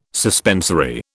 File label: "suspensory", British